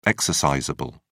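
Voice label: "exercisable", British English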